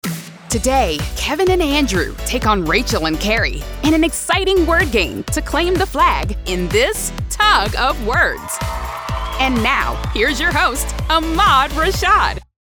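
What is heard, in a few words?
Upbeat Excited Game Show Announcer TV Narration; Tug of Words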